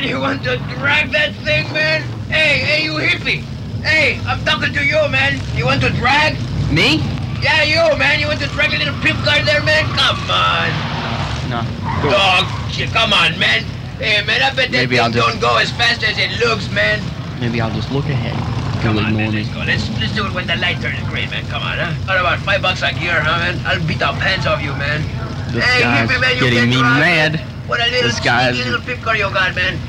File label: AOR commercial Import auto parts